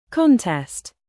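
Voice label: "bass", noun, British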